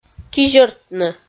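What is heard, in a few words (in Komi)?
"кизьӧртны", Komi, female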